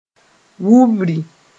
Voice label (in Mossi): "wubri", moore; upbringing